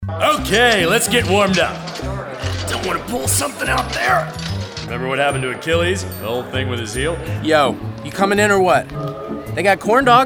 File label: animation